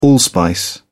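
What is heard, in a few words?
"allspice", British English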